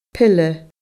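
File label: "pile", Scottish Gaelic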